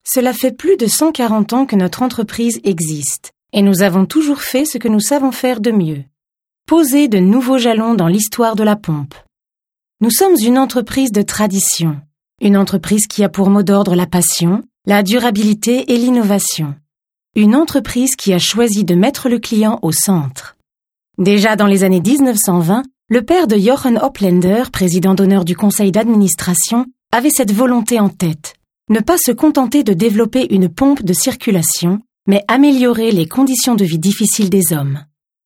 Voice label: VOICE OVER; WILO